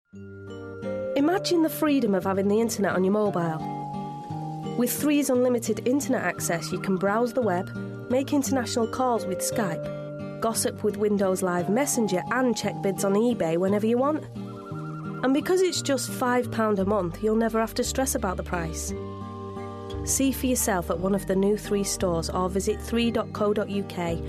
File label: Commercials, regional accents